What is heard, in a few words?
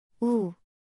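"ㅜ", Korean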